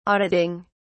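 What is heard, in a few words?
"auditing", British English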